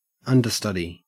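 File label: "understudy", Australian English; pronunciations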